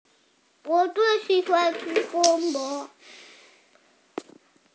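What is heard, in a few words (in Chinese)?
"bo1luo2", Mandarin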